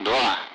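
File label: Speech Sound Russian Common Allied Flight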